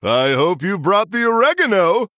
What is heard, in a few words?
Male Human Mirror Start